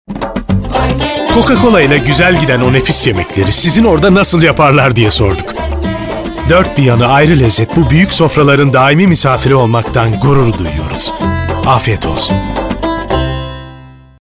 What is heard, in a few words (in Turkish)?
reklam; coca cola